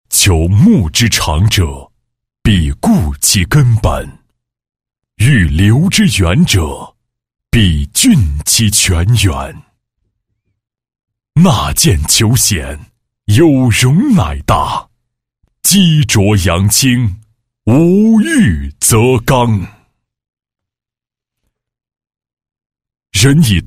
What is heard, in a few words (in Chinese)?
男 专题 厚重大气, 国语男声配音; 廉政宣传